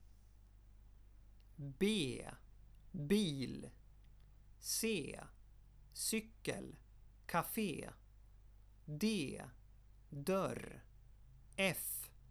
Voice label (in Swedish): inledning, svenskabok; پیشگفتار - حروف بیصدا